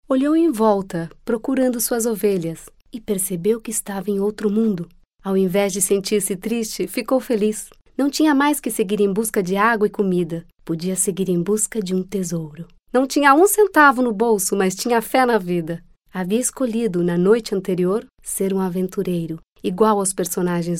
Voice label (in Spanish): Portugues; Casting Impulse